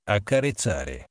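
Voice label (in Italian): "accarezzare", italiano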